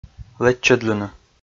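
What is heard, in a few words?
"лэччӧдлыны" Komi, male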